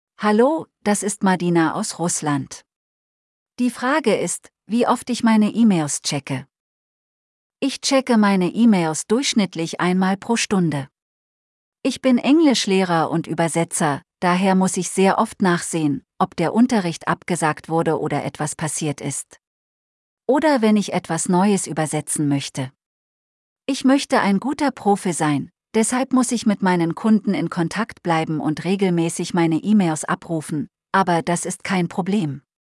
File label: Audiobook Voice